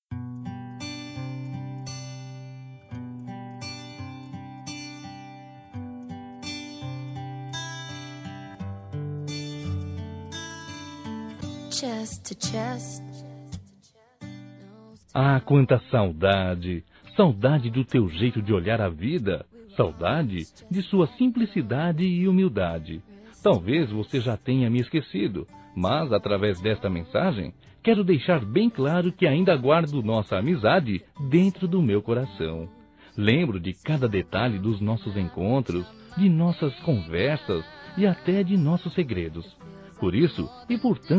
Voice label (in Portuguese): Neutra Masculino, Telemensagens Saudades